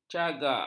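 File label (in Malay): "cagak", Malay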